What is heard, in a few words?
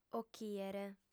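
"ochìere", female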